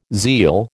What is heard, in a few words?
"zeal", American